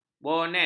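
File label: "bonet", Malay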